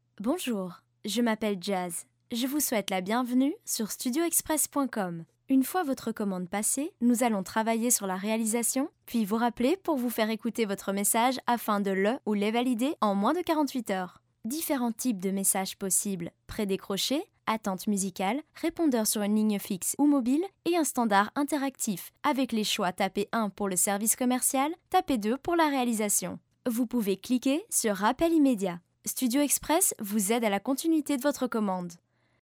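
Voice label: French, female, narration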